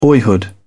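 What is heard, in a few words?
"boyhood", English, British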